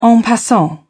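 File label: "en passant", British English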